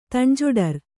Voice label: "ತಣ್ಜೊಡರ್", Kannada